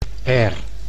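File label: "air", Dutch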